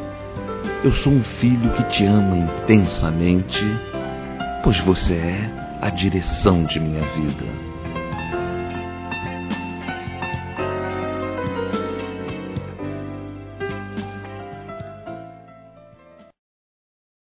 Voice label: MÃE, AMOR DE SEMPRE M, Telemensagens; Dia das Mães; Masc; Telemensagens 2017

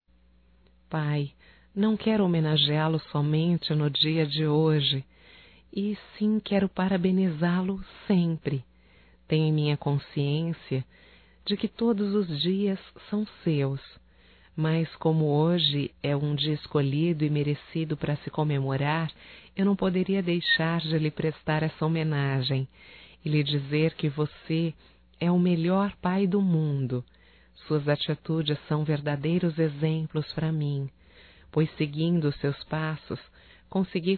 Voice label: Dia dos Pais Feminino, Telemensagens, Só Voz; Telemensagens 2017

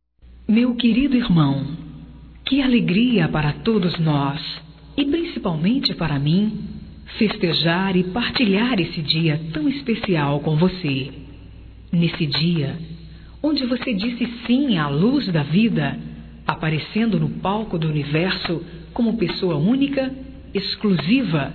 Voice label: IRMAO, Telemensagens, Aniversarios, fem; Telemensagens 2017

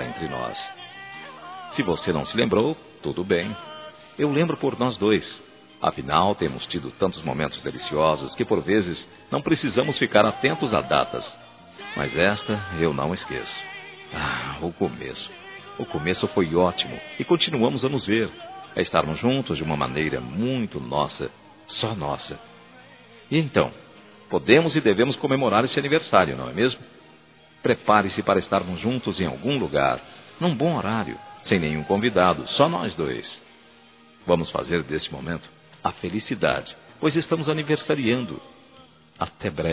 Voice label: PAQUERA M

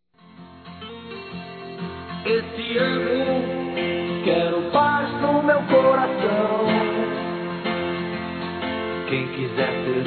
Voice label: Feliz Ano Novo Feminino, Telemensagens; Natal e Ano novo

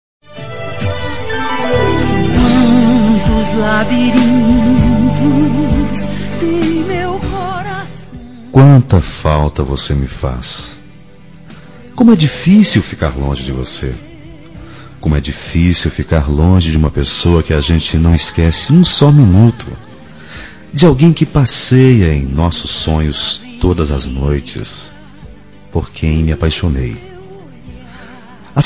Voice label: SAUDADES MASC; Telemensagens 2017

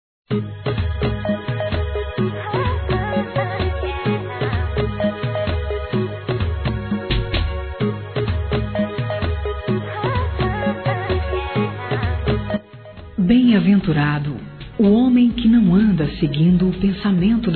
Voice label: Telemensagens, Aniversários, Fem; ANIV. EVANGÉLICO GERAL

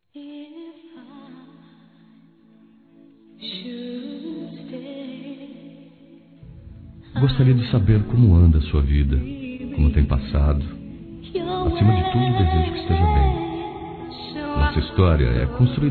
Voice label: Quero Te Encontrar Masculino

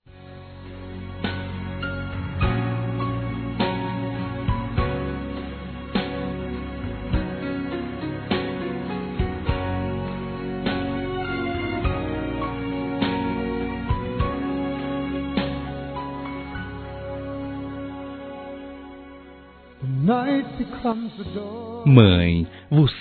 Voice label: FILHO, Telemensagens Dia das Mães, Masc; Telemensagens 2017

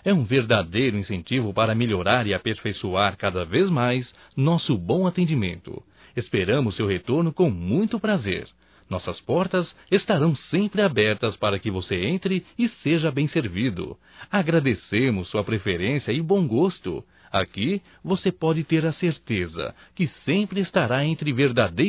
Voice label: CLIENTE ADVOGADO (M); Profissionais; masc; Telemensagens 2017

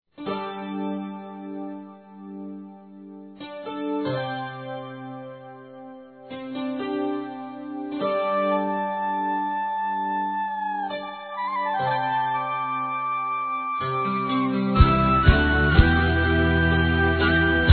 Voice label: Mensagem Romântica Masculino

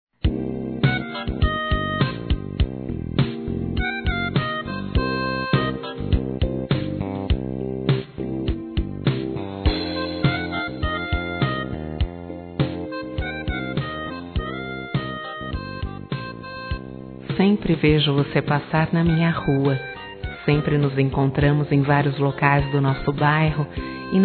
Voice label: Paquera Feminino; Telemensagens 2017

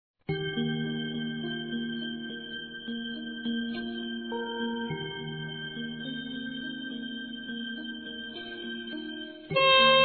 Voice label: RECONCILIAÇÃO F

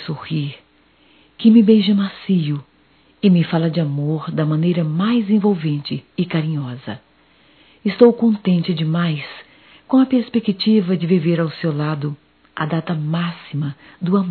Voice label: Natal romantico, Telemensagens, fem; Telemensagens 2017; Natal & Ano Novo

